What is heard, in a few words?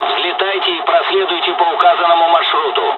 mission fly, dialogs russian; War Thunder